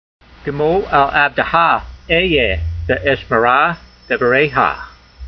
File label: voice, hebrew language; Psalm 119:17; gemol al-avdeha eyh'yeyh ve'eshmerah devareyha